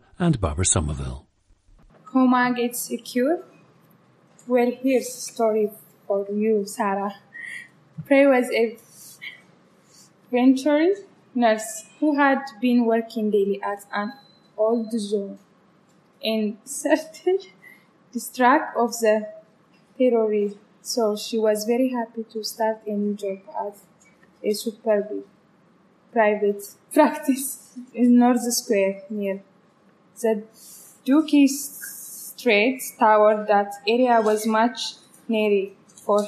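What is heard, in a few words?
ethiopia, International Dialects of English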